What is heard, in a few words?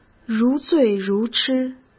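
"如醉如痴", Mandarin Chinese; hsk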